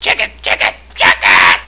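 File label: Annoying oration; The Young Ones?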